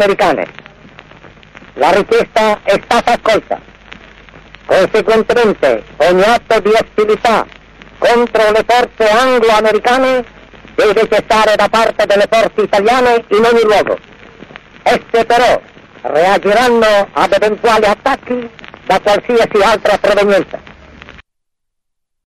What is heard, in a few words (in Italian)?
annuncio radiofonico armistizio; pietro badoglio; 1945; Radiodiffusione